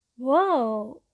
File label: girl "wow"; Inseminator